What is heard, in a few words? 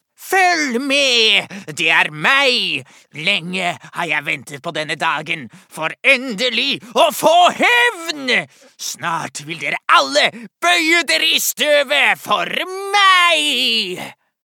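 Norwegian dub evil character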